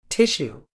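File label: "tissue", British English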